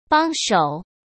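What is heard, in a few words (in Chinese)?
"帮手", Mandarin; chinese-flashcards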